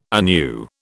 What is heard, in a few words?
"anew", British